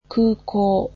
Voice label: "airport", japanese

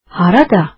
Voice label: where, azeri

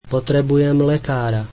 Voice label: I need a doctor, slovak

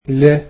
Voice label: "for", egyptian

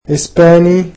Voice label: "Spanish", egyptian

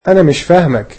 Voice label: "I dont understand you", egyptian

